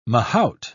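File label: "mahout", British English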